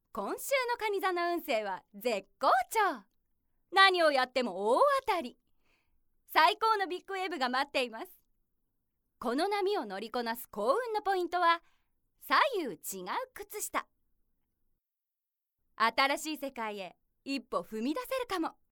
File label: narration